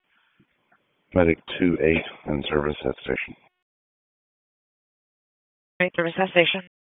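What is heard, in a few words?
EMS Dispatch; wake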